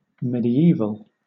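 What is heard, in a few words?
"medieval", British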